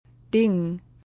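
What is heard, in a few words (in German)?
"Ding", German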